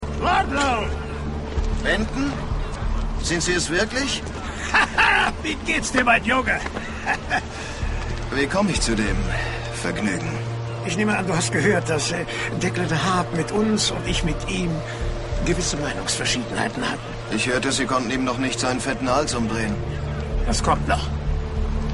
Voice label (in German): Synchron; Frontier